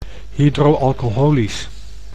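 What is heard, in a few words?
"hydro-alcoholisch", Dutch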